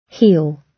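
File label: "heel", British English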